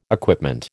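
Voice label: "equipment", American English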